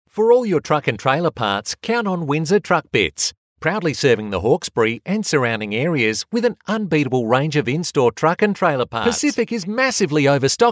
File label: Hard Sell